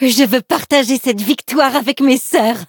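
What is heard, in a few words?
victory, French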